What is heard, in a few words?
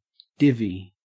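"divvy", Australian English; pronunciations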